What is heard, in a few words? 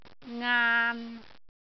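"aan3", Cantonese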